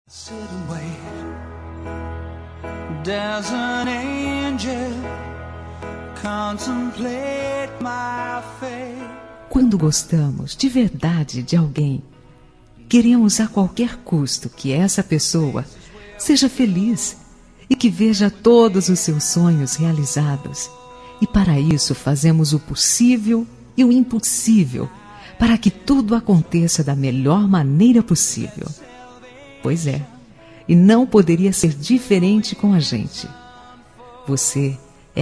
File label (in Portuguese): Romântica Boas Férias